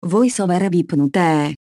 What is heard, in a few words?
Voice Over Off; hackintosh; AXEFIAudio